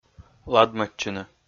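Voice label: "ладмӧдчыны", Komi, male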